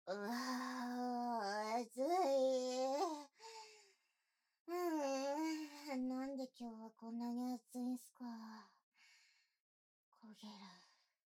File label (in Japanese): (背景音なし) ローションまみれのエロメイド